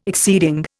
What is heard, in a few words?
"exceeding", Indian